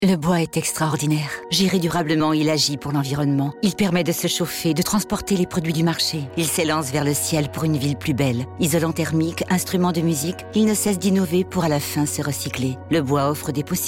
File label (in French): radio; FRANCE BOIS FORET